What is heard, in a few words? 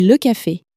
"café", French; flashcards